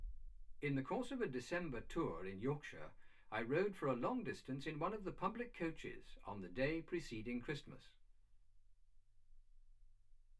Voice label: male speech english